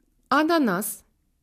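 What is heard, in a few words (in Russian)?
"ananas", Russian; phonetique et graphie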